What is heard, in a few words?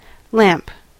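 "lamp", English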